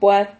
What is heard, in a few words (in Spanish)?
"puad", Silabas